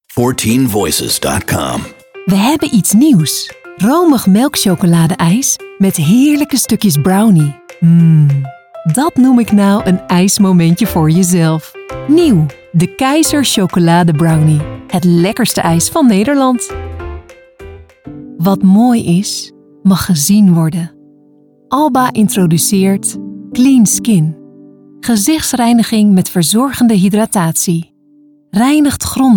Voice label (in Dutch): Commercial; 14Voices